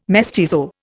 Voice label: "mestizo", Indian